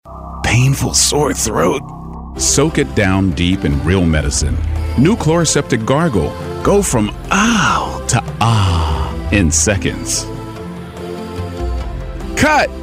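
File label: 40s Male African American Commercial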